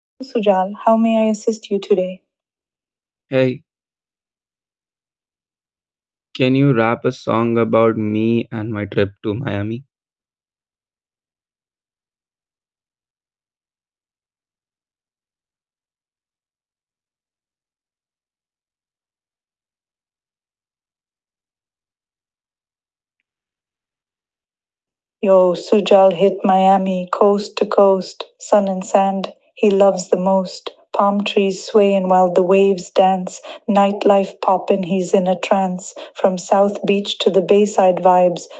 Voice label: voice agent rap